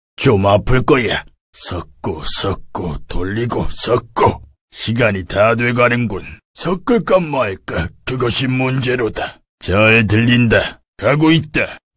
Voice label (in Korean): 중년; 롤 신지드